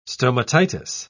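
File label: "stomatitis", English, British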